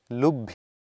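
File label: "lubh", Proto-Indo-European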